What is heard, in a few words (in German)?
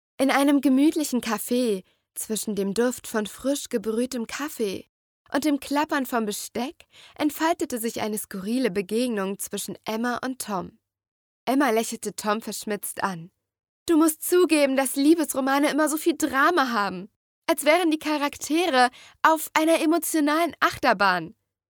hoerprobe roman